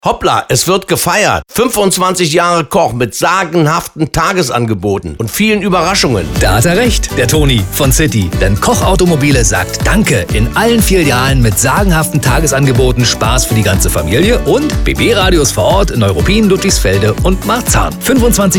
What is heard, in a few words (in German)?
Spot Radio; KOCH; BB Radio